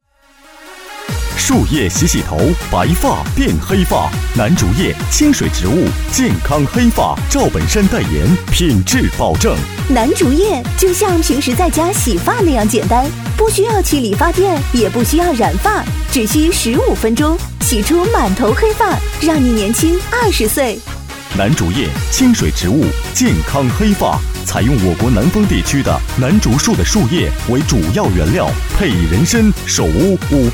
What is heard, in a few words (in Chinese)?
男国 广告 促销 南烛叶 激情